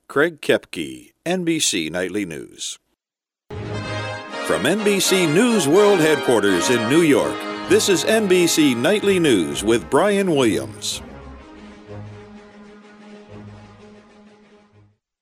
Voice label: Nightly News Open; NBC; Auditions